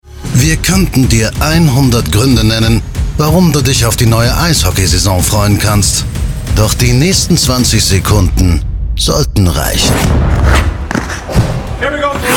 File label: ICEHOCKEY Radio Spot